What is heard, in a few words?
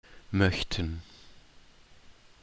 "möchten", pronunciation, German